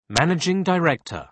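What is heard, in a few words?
"managing director", British English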